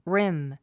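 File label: "rim", 英単語 発音; rím リム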